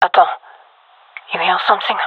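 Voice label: heard sound, gendarmerie female; vo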